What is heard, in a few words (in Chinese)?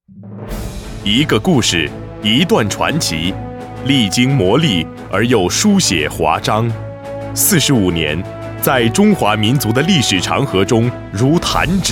英男 沉稳磁性 中文; 一个故事一段传奇